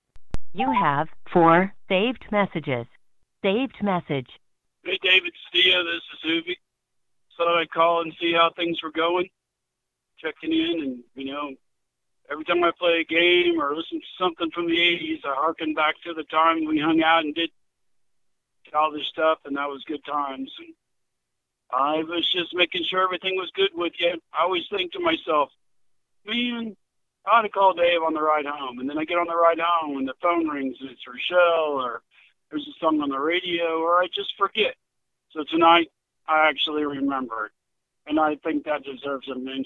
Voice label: VOICEMAIL